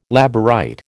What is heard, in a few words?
"Labourite", American